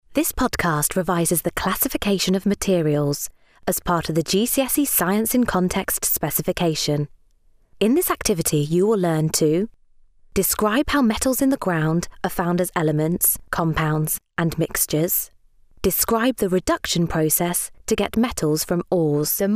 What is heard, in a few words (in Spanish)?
Femenino